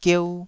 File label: "gieu2", Taiwanese syllable